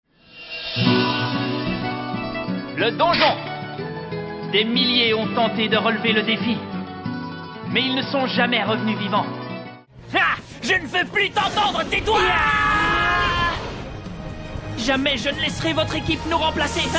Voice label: Voice Over Animation French